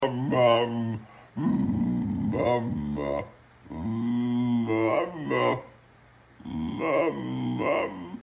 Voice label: Upset dog talking; meme

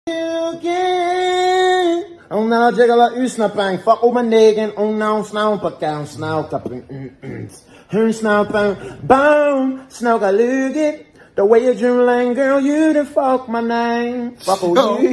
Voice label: baltimore accent

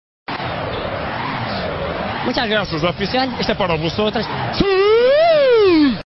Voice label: siuu but its distorted; made with Voicemod

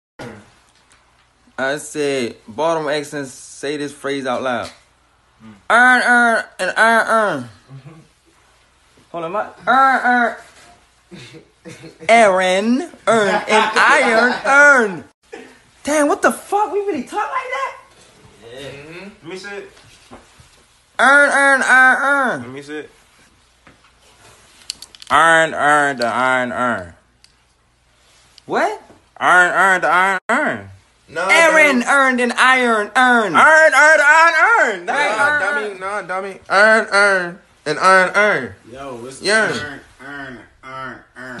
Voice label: Baltimore accents

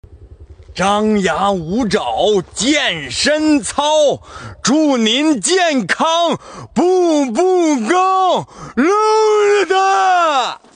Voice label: Happy Chinese Guy Explaining; Meme

